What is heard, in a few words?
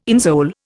"insole", Indian